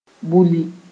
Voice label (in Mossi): "bulli", moore